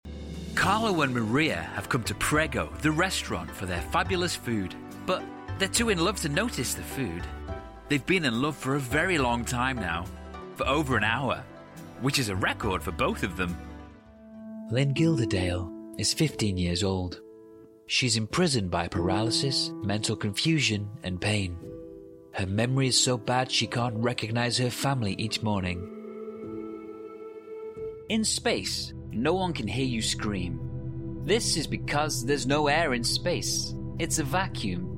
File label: foreigner